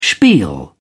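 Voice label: "spiel", British English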